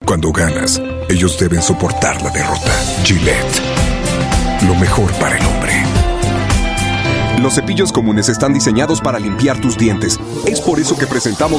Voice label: Latin Spanish Mexico Character Reel